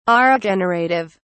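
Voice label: "aregenerative", English, British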